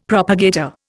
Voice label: "propagator", Indian; Dictionary

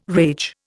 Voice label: "rage", Indian

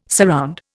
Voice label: "surround", Indian

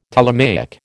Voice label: "Ptolemaic", American

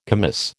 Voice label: "commis", American

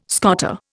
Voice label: "scoter", Indian

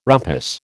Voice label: "rumpus", American

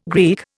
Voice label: "Greek", Indian

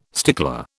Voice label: "stickler", British

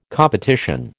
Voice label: "competition", American

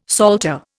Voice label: "psalter", Indian; Dictionary

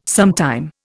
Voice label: "sometime", Indian

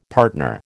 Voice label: "partner", American

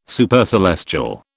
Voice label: "Supercelestial", British

